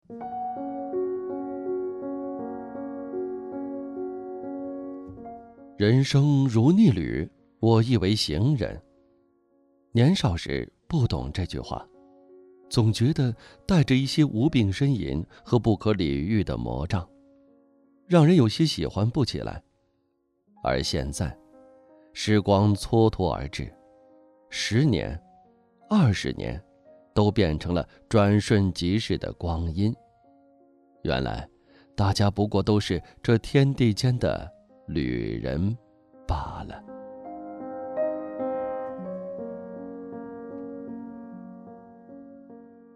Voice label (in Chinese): 深情舒缓配乐版